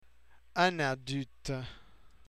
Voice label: "un adulte", French